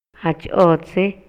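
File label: Maya, male; EMOCIONES Y VALORES; INALI; MayaYuc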